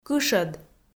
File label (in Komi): "кышӧд" Komi